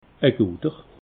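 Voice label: "gueter", Alsatian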